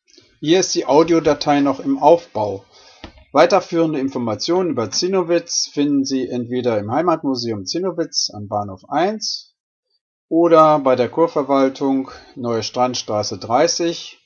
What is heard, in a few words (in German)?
Audio vorläufiger Hinweis Deutsch